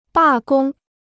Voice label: "罢工", Chinese

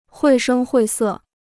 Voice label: "绘声绘色", Chinese

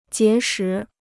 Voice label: "结识", Chinese

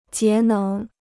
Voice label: "节能", Chinese

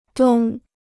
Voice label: "东", Chinese

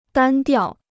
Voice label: "单调", Chinese

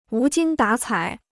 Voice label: "无精打采", Chinese

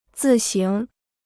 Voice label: "自行", Chinese

